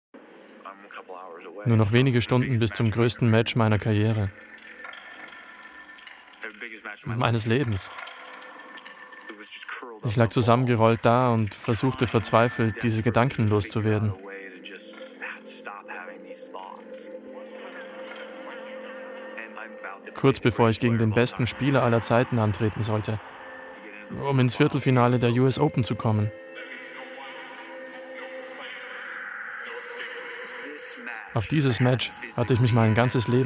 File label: Voice-Over, Sprechproben; Imagefilm Klafs; Werbung Nova Rock; Werbung Karlis Wurstsalon; Werbung IAA